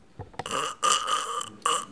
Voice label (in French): agonie